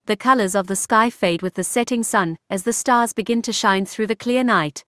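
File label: South African English; iOS Sounds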